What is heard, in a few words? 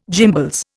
"gimbals", Indian